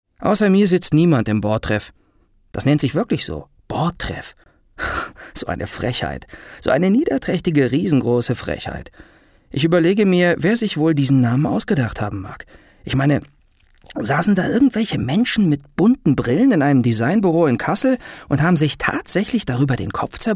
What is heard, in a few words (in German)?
hoerbuch